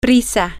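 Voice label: in a hurry, spanish, female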